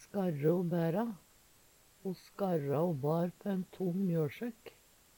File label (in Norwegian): "skarre o bæra", Numedalsmål; Uttrykk; Ho skarra o bar på ein tong mjøLsækk.